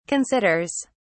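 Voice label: "considers", English, British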